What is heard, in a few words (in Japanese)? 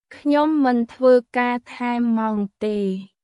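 クメール語（カンボジア語）の日常会話基本フレーズ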